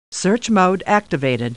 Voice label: Computer Voice; Star Trek